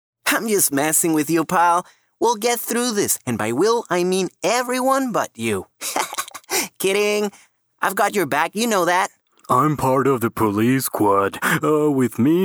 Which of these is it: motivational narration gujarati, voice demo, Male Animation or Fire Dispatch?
Male Animation